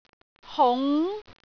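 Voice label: "hung2", Cantonese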